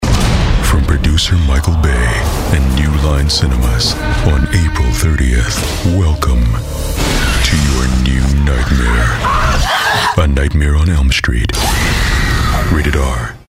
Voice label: movie trailers

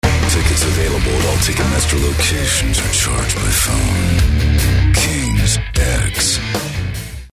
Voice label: attitude

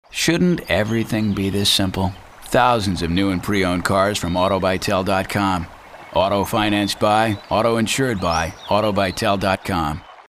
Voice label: anti announcer

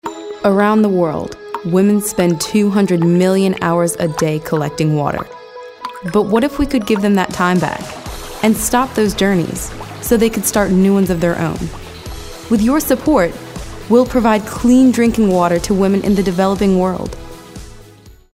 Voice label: thoughtful

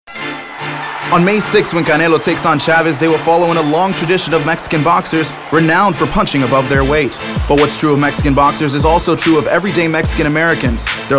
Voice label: Boxing - English

